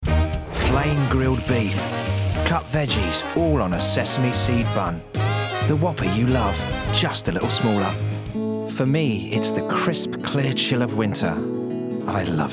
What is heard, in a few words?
Commercial Montage